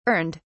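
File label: "earned", British English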